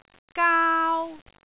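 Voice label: "gaau1", Cantonese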